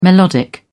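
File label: "melodic", British English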